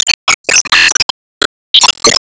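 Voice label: Voice Emotion Warcry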